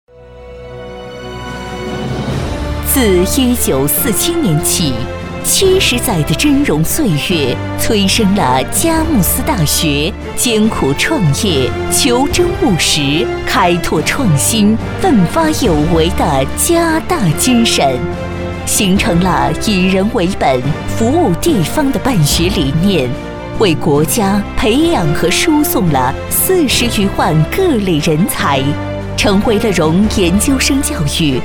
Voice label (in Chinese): 专题 学校 大学 大气; 佳木斯大学